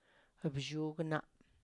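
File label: "bzhugna", Tlacochahuaya Zapotec; my Finger Nail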